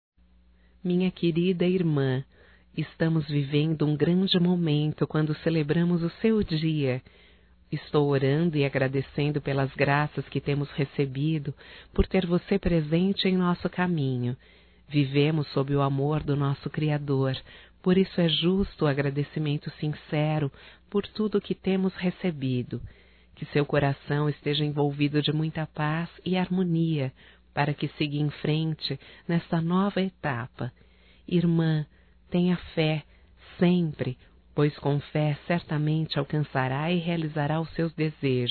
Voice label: Aniversário Evangélico Feminino, Telemensagens; Telemensagens 2017; Aniversarios

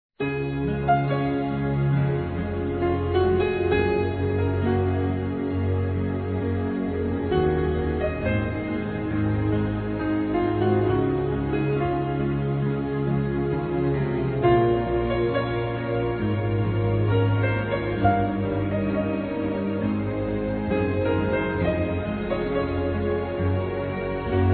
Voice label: Para Quem sofreu Derrame M, Telemensagens Otimismo masc

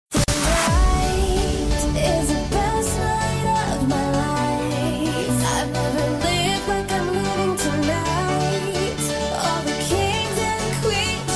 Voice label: IRMÃ F; Aniversários; Aniversários diversos; fem

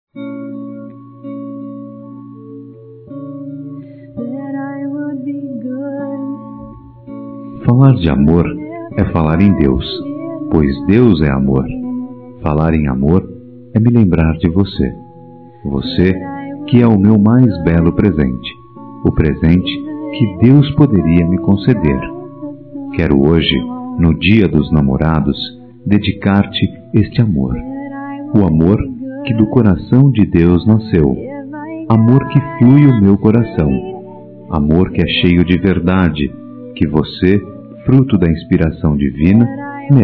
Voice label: Religiosa Masculino; Telemensagens; Dia Dos namorados; Telemensagens 2017

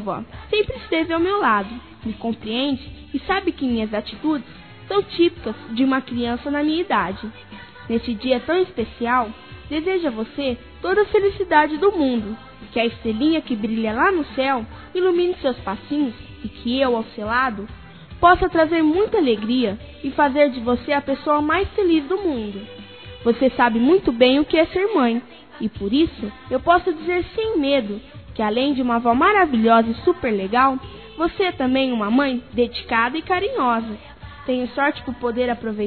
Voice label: Dia Das Mães Para Avó Feminino; Telemensagens 2017

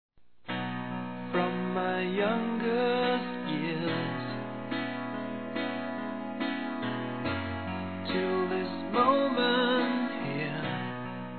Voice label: RECONCILIAÇÃO F; fem

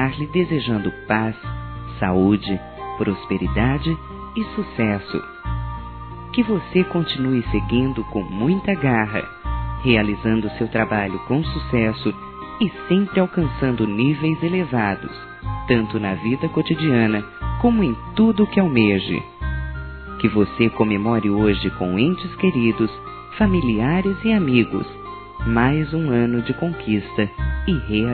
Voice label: ANIV. CLIENTE-F, Telemensagens Empresarial; fem; Telemensagens 2017

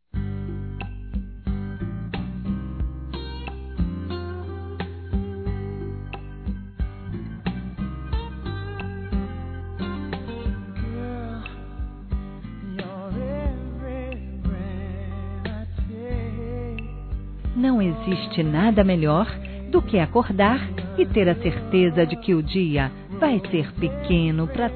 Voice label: ANIVERSÁRIO NORA GENRO F, Telemensagens, Fem; Aniversários; Aniv Familiar

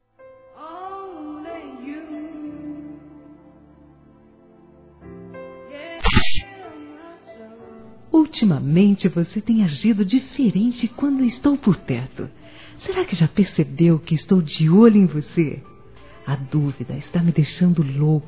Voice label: Paquera Feminino; Telemensagens 2017

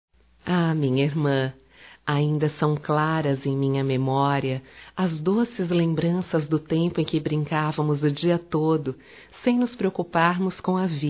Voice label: Dia das Mães nós irmãs feminino, telemensagens; Telemensagens 2017

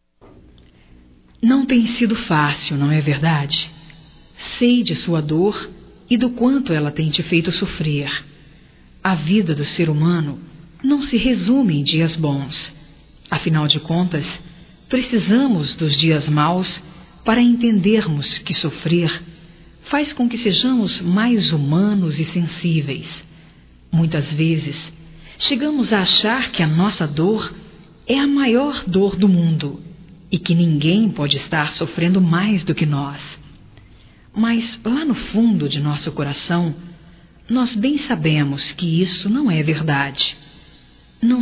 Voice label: Conforto Geral Feminino, Telemensagens

